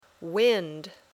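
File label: "wind", English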